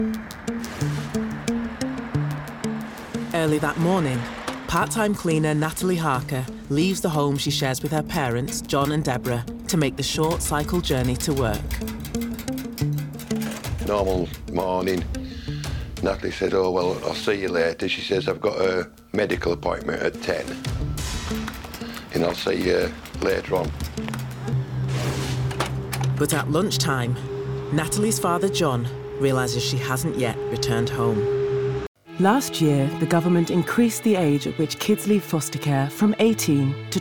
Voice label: Narrative